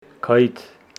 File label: Homshetsi stomeach